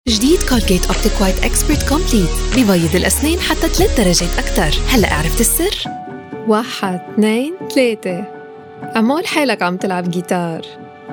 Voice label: lebanese commercial; Showreel